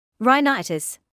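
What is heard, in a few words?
"rhinitis" English, British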